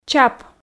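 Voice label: "чап", Komi, female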